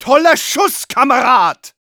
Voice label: nice shot de; Medic

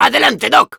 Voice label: activate charge, es; Demoman

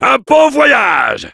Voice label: Heavy thanks for the teleporter, fr

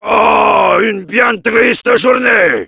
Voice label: jeers, fr; Heavy

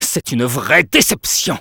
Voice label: jeers, fr

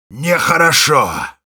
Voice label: negative vocalization, ru

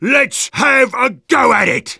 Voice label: battle cry

